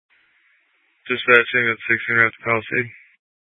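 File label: Fire Dispatch, scanner audio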